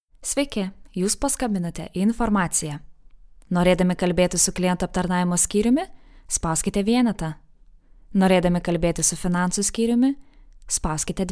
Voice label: Voice over LT - Phone

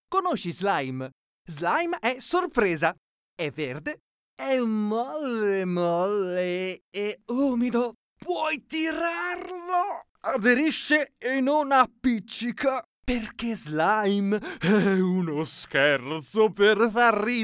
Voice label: Spot

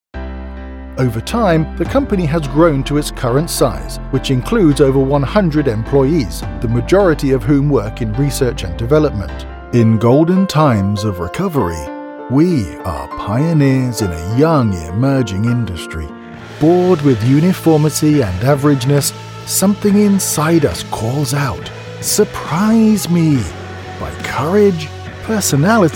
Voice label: corporate narration

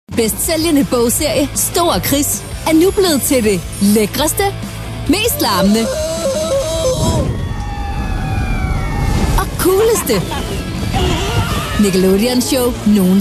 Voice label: Promo; Nickelodeon Big Nate

